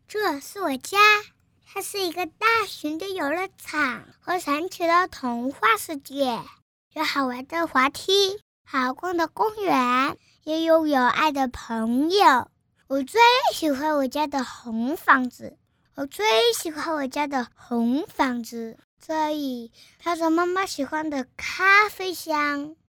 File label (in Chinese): 男真童; 是我家; 真童配音